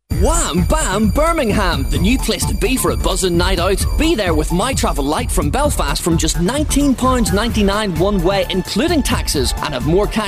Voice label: Irish